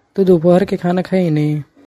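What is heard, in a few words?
Tu duphar ke khana khaini/ khalhin?, Magahi; Did you have lunch?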